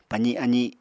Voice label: "pazi azi", Apatani

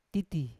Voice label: "titi" high tall, Yawa

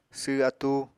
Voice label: "sww atúu", Apatani

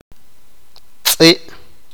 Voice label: perro, sipakapense

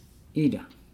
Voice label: "mole freckle", Nukuoro

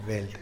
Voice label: "beld" fish; Tlacochahuaya Zapotec Talking Dictionary; animals

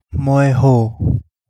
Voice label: Kapingamarangi; Micronesian starling

